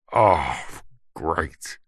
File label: human male grumpy angry frustrated says oh great; zapsplat